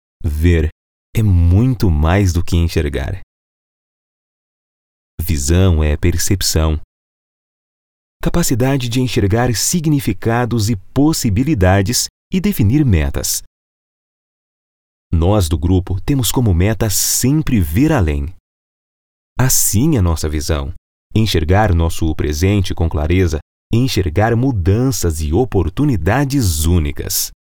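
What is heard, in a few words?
Brazilian Portuguese, male, narration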